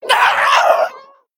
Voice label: Female Ash Ghoul Death